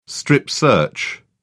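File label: "strip-search", British English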